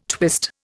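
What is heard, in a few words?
"twist", Indian English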